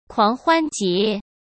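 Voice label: "狂欢节", Mandarin Chinese; hsk; chinese-flashcards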